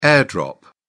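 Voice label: "air-drop", British English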